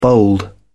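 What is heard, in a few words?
"bold", British English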